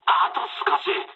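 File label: near waypoint mood med, speech japanese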